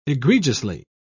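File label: "egregiously", British English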